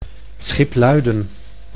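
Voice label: "Schipluiden", Dutch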